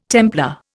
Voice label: "Templar", Indian

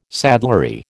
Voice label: "saddlery", American

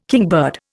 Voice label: "kingbird", Indian

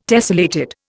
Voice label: "tessellated", Indian

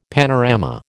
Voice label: "panorama", American

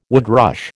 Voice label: "woodrush", American

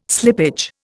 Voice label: "slippage", Indian

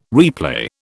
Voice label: "replay", British

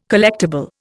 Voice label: "collectible", Indian

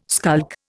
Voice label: "skulk", Indian

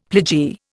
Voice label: "pledgee", Indian; Dictionary

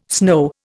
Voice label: "snow", Indian

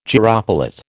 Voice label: "gyropilot", American

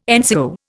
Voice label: "NCO", Indian

